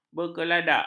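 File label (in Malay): "berkeladak", Malay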